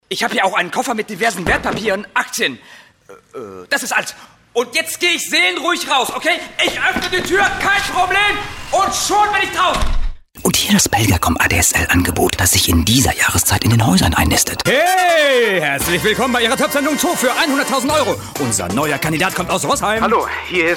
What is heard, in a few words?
male german voice over character